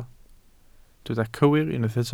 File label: "cywir", Welsh